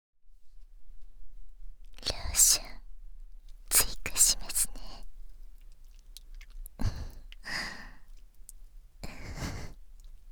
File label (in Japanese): ささやき淫語ローション手コキでフィニッシュ, ASMR